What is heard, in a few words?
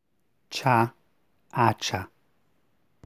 c aspirated